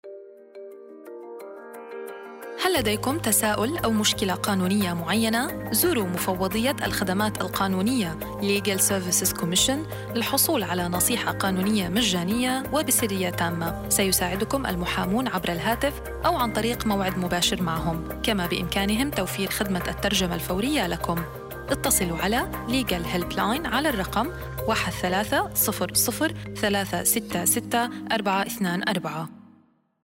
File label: ARABIC